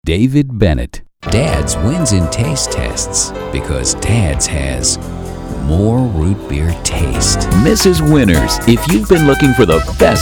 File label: commercials promos